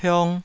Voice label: "piong2", taiwanese

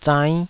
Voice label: "zainn5", taiwanese syllables

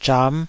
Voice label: "zham5", Taiwanese syllable

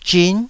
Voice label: "zhin9", taiwanese

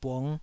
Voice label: "bung5", taiwanese

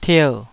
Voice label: "tier3", taiwanese Syllables

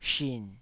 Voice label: "shin3", taiwanese Syllables

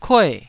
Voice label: "kue4", taiwanese syllables

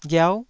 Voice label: "ghiau9", Taiwanese syllable

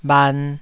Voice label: "bhan2", taiwanese

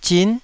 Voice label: "zin9", taiwanese

